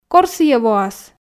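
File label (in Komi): кор сійӧ воас, Komi, female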